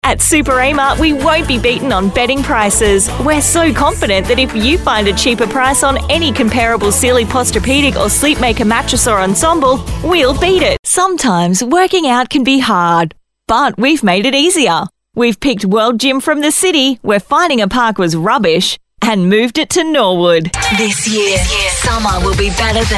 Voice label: Female Talent